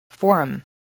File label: "forum", English voice, spelling